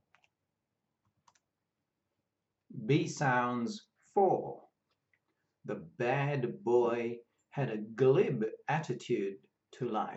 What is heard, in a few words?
B sounds, pronunciation of australian english